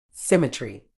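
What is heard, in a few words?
"symmetry", British English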